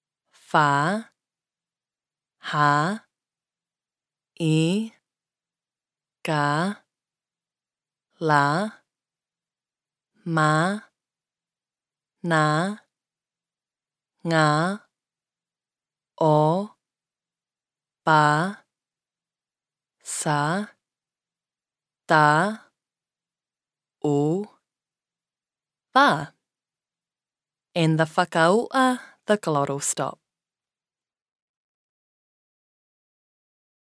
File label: Lea Faka-Tonga alphabet female voice